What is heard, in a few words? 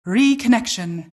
announcer alert reconnection